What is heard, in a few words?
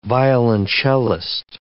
"violoncellist", English